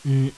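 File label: kana "N", Japanese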